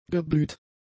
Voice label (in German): "geblüht", German; adjectives